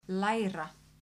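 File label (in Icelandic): "læra", Icelandic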